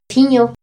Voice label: "pjiño", Mazahua